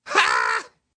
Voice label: "Haah", Male, exclamation; Voice Box

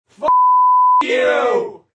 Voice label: "Fk You" Group; Attitude

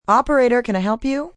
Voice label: Operator Can Help, Telephone operator, female; VoiceBox

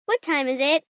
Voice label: What Time Is It, Questions, 1 girl, 11 yrs.; Talking

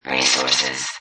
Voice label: Robot Voice "Resources"; Robot Type 1 voice; Lynne Music

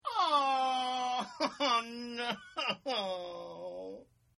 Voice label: Oh No Male; Exclamations; Sound Effects:Voice; Partners In Rhyme

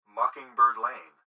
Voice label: Gps Voice, Male; Laptop Speaker Adult Male; Recorded Voice; Blastwave

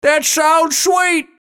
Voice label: VOICE COMICAL; That Sounds Sweet; Radio Voice; Blastwave

